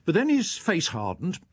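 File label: then His face, Standard Southern British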